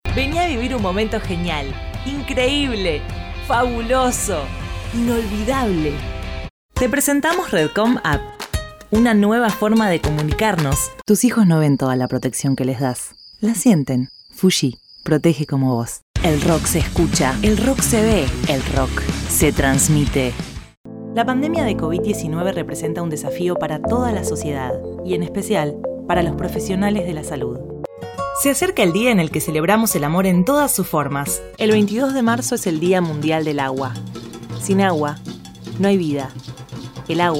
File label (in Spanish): Locutora Rioplatense; Demo Rioplatense